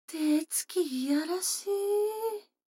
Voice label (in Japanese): 女の子台詞